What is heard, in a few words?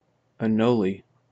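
"anole", American English; pronunciations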